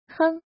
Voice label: "heng1", 汉字语音; chinese-voice

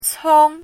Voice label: "cong1", 汉字语音

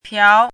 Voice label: "piao2", 汉字语音

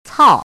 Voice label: "cao4", 汉字语音

